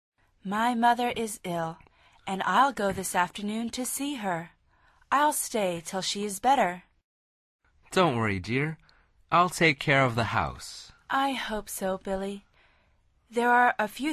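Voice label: dialog, Conversación en Ingles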